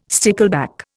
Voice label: "stickleback", Indian